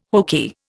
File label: "hokey", Indian